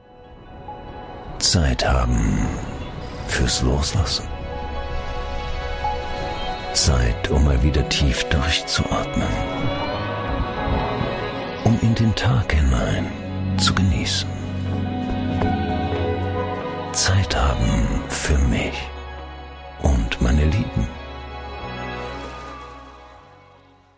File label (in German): Imagefilm Hotel; Hotel Guglwald